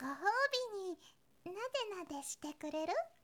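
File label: "gohoubininadenade", Japanese, girl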